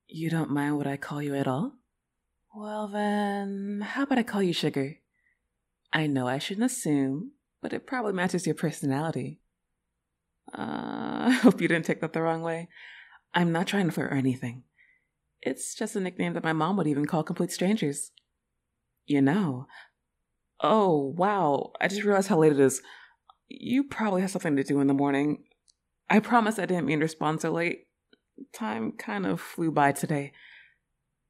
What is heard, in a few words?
F4M Voice Mail